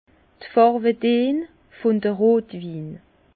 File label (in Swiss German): "farwedeen", Bas Rhin; vin; teintes des vins rouges